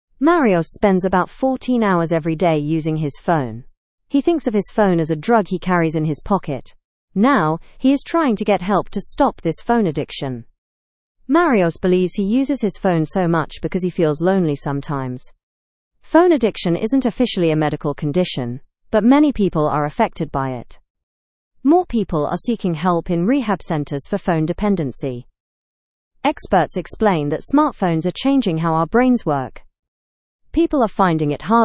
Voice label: learn english through news lower intermediate; rehabilitation for phone addiction growing